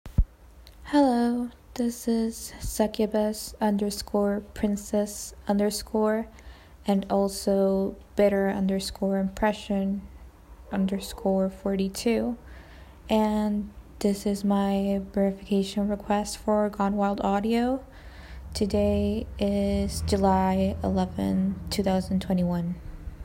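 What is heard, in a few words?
Female Verification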